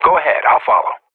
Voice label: radio bot follow you, vo professional; Counter Strike Model Pack; CS:GO